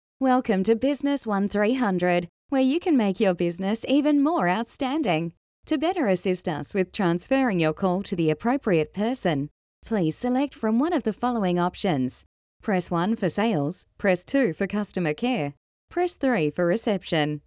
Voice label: Friendly Business